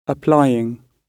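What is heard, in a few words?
"applying", British English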